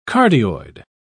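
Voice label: "cardioid", British English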